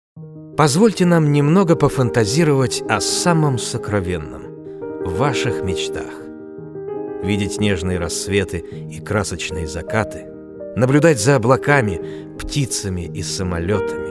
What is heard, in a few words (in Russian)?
РЕКЛАМА